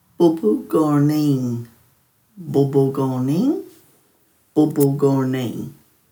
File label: "bubugurning", Dhurga